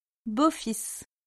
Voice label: "beau-fils", French